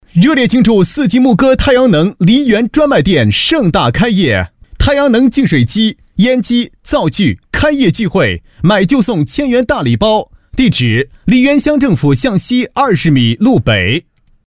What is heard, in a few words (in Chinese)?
男 广告 热烈庆祝, 国语男声配音; 四季沐歌太阳能梨园专卖店盛大开业